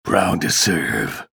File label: chatter positive; ranger; frag